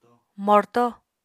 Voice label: "morto", Apatani

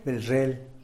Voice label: "belzhel" silkworm; animals

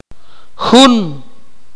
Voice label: "one", Akateko

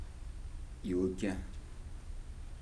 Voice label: "heat", Kibembe

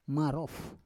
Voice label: "marof", Roon

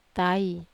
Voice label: "tai", Wamesa

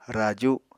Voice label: "raju", Apatani

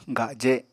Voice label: "gaje", Apatani

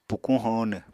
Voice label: "pukuñ hóonw", Apatani

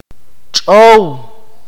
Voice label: "mouse", akateko

